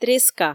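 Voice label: "треска", Komi, female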